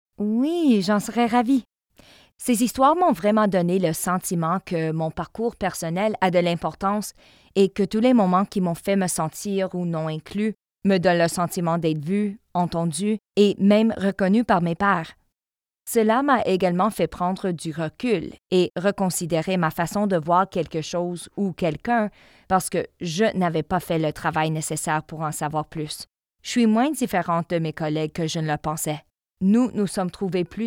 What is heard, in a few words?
female French Canadian narration